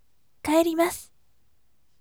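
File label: voice, gentle; kaerimasu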